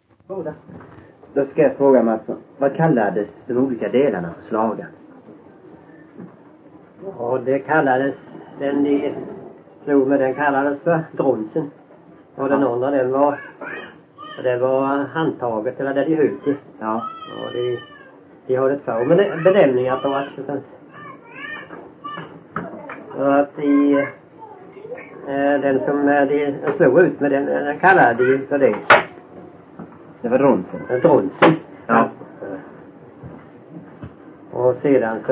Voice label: Öljehult, dialekter; svenska sagor

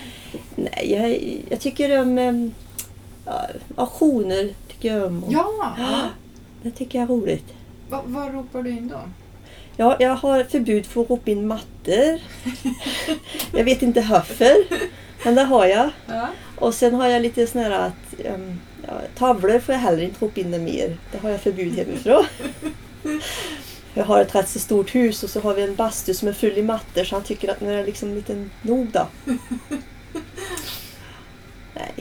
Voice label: 43-aring Torsby, dialekter

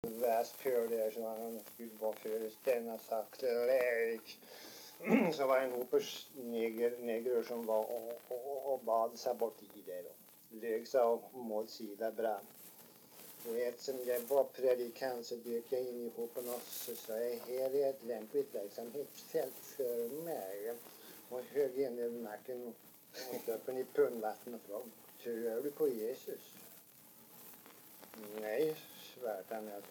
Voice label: dialekter; Folkminnen

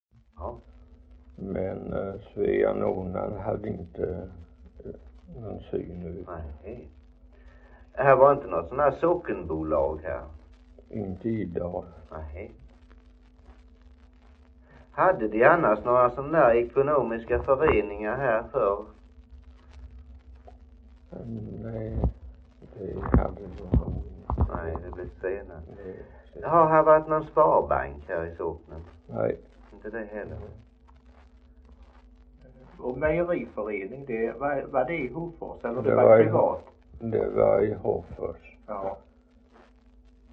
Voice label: Idala, dialekter; HALL

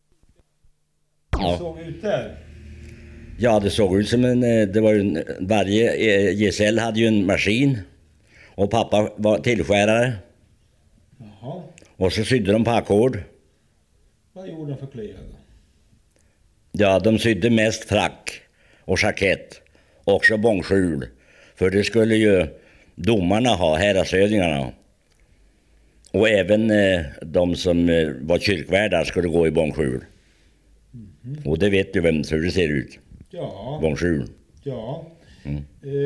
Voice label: Ullånger, dialekter; Folkminnen

